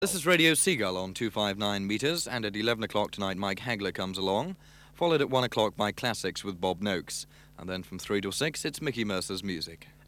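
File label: ID and programme rundown; Radio Seagull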